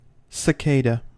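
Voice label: "cicada", English, US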